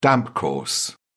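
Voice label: "damp course", British English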